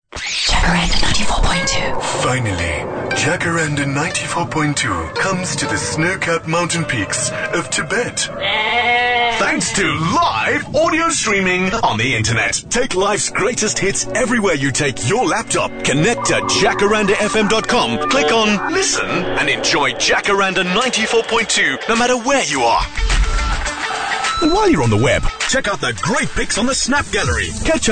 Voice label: English Promo Showcase